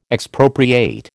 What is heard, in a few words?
"Expropriate", American